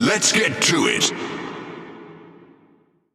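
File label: Speech, British English; Lets Battle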